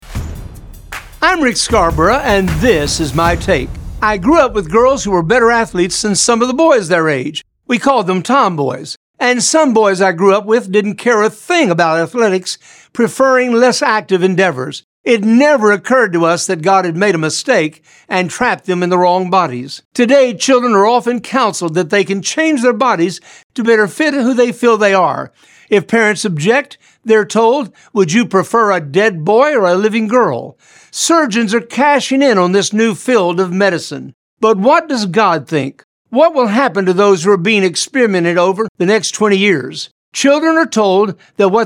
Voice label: Radio Spot; Wrong Body